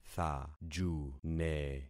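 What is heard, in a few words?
"thajune", concatenated syllables; Affixing experiment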